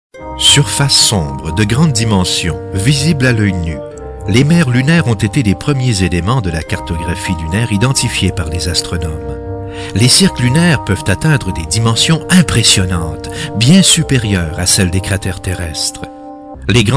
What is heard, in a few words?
French Canadian